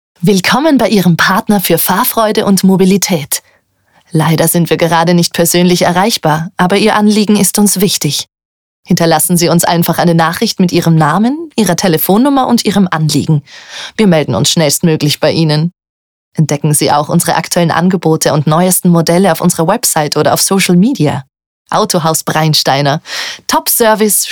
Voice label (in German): Anrufbeantworter, Anrufbeantworter Autohaus; (zu Demozwecken aufgenommen), 2025